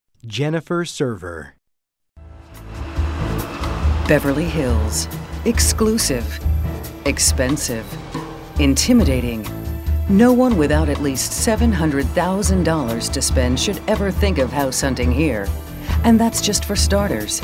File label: Narration